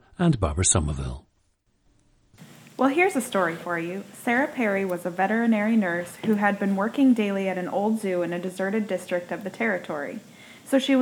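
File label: iowa, International Dialects of English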